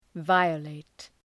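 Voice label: "violate", English word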